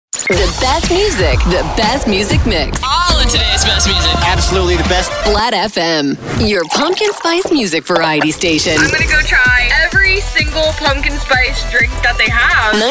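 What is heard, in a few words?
Radio Imaging, female voice actor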